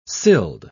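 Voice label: "sild", British English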